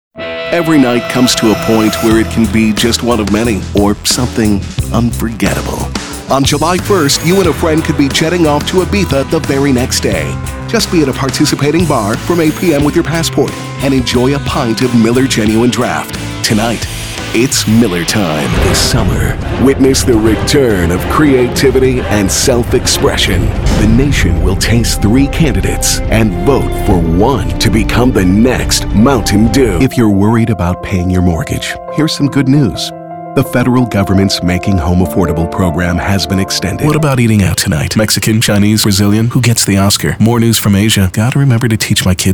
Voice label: Commercials